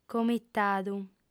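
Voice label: "comitadu", female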